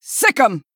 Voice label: Female Night Elf Attack